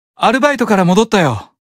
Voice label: Job Notification Voice; Diavolo